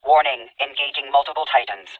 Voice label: warning engaging multiple titans, Titan, EN, Tone Voice; Titanfall 2